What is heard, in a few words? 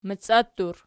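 "maciattur" nominative, Archi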